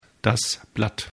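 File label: "blatt", German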